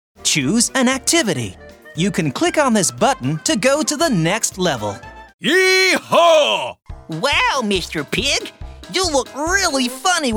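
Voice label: American, Toys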